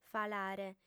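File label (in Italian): "falare", lingua sarda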